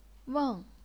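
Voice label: "weng", chinese pinyin, fourth tone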